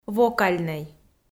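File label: "вокальнӧй", Komi, female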